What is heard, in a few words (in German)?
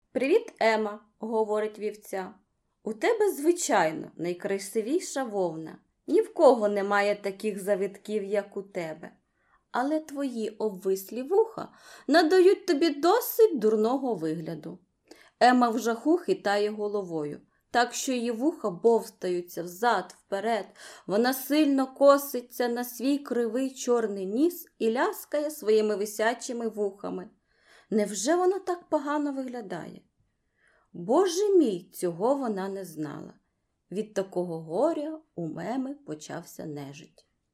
ukrainisch